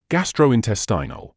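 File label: "gastrointestinal", British English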